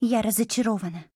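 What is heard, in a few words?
LOW MOOD, Japanese; 少女前线; AK74M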